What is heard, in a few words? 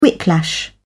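"whiplash", British English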